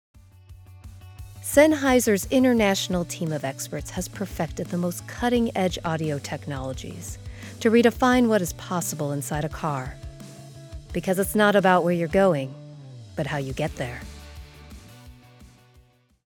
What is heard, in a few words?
Brand Narration